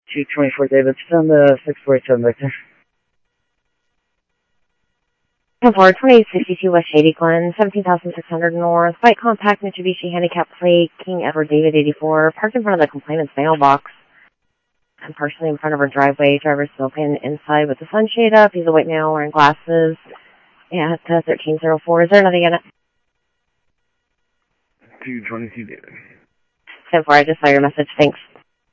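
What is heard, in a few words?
Phoenix police department, scanner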